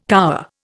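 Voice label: "cower", Indian English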